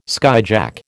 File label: "skyjack", American